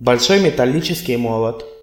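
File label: mallet metal desc, Russian; household; tools; tuxpaint-stamps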